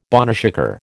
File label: "boneshaker", American